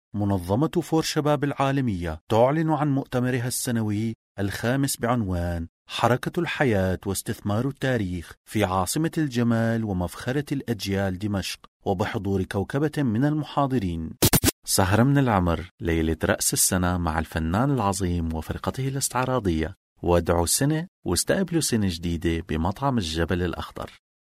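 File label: Arabic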